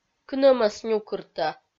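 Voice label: "кынӧмӧс нюкыртӧ", Komi, female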